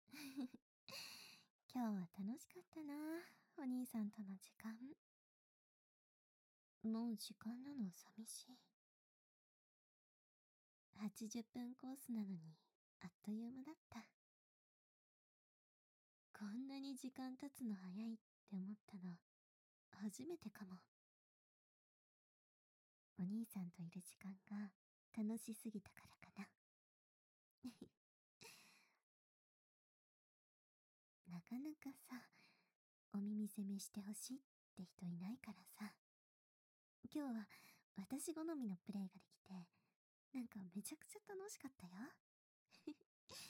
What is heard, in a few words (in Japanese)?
ピロートーク, ASMR